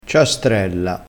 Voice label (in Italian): "ciastrella", crespinese